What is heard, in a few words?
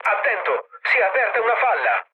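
Italian damaged water mood low, speech; War Thunder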